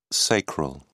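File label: "sacral", English, British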